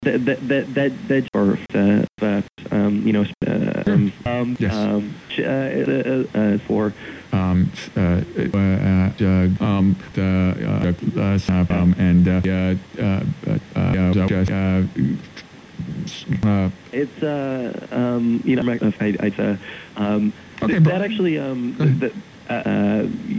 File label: Interview Fillers Only; NPR